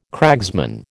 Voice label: "cragsman", American